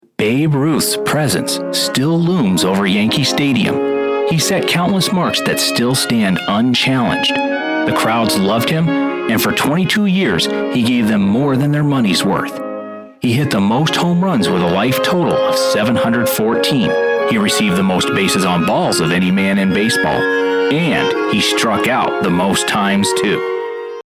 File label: Documentary Narration